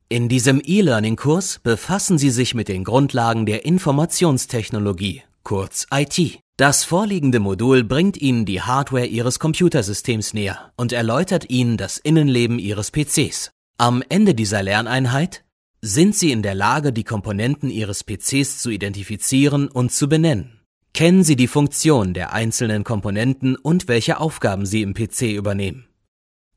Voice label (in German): Kommentar Freundlich